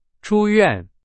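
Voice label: Chinese; hsk2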